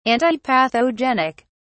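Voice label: "antipathogenic", British English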